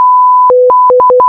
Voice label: barker